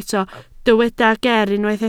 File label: "ger", Welsh